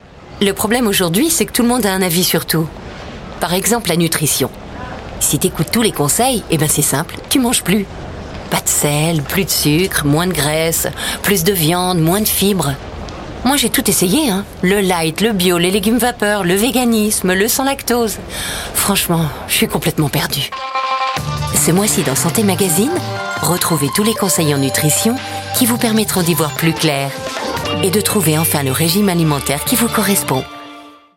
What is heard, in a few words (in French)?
pub comedie; sante magazine